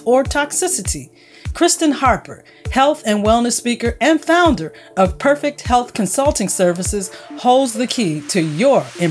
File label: Hair Analysis Commercial